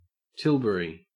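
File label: "tilbury", Australian English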